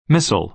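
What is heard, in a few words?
"missal", American English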